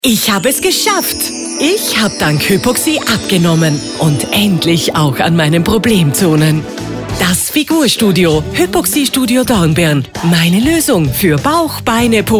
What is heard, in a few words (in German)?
Radio Spot; Das Figurstudio